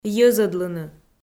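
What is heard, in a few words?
"йӧзӧдлыны" Komi, female; audio dict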